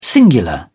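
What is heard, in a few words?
"singular", British English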